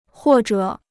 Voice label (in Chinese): "或者", Chinese